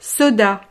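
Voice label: "soda", French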